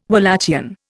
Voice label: "Walachian", Indian

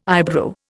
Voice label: "eyebrow", Indian

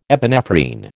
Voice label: "epinephrine", American

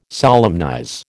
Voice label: "solemnize", American

